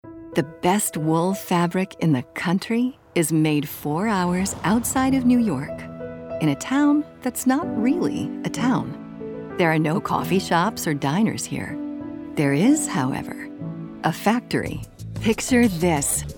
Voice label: Narr